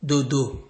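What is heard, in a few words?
"dodo", Nigerian Pidgin, male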